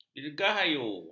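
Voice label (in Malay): "dirgahayu", Malay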